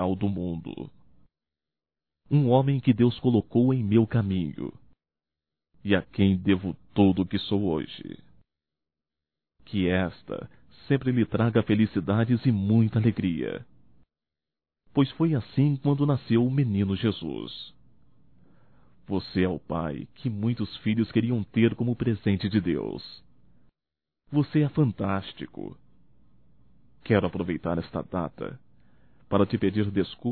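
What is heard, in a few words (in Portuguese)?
NATAL ANO PAI (Masculino); Natal e ano novo; Telemensagens 2017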